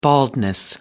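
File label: "baldness", British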